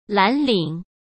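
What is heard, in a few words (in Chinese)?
"蓝领", Mandarin; chinese flashcards; hsk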